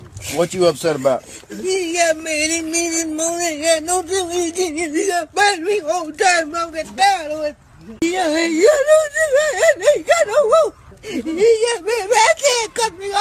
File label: upset